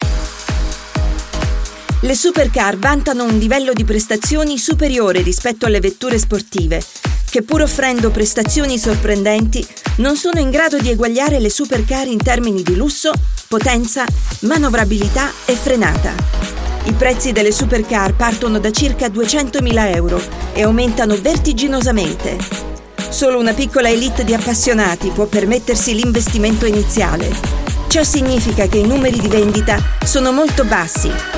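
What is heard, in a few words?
Italian, Corporate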